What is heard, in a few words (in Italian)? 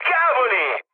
trick, mood high, speech it; War Thunder